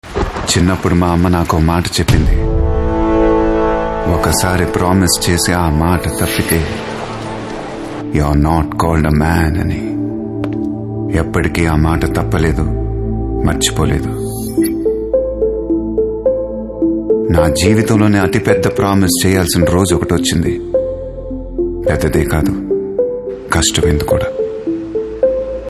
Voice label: Voice Tone Telugu Ringtone; Bharat; Mahesh